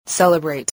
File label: "celebrate", spelling